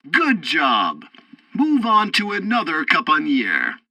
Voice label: tutorial tank arcade second target killed, english; War Thunder